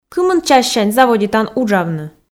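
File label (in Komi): кымын чассянь заводитан уджавны, Komi, female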